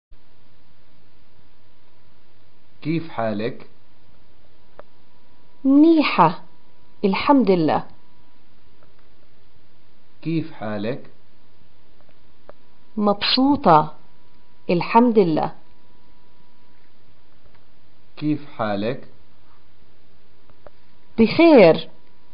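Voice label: "getacqua how are you response", Palestinian Arabic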